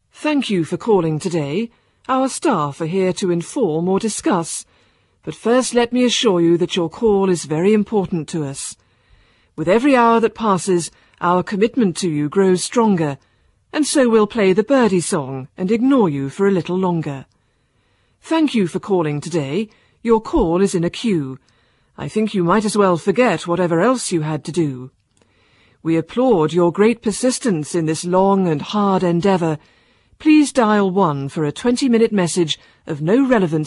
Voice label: voicemail